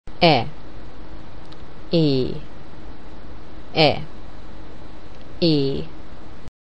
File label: Hungarian Vowels Comparison E